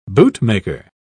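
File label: "bootmaker", British English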